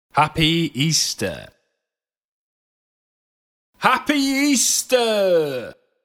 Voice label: Happy Easter Spoken, Male voice saying ‘Happy Easter’ x 2.; Holidays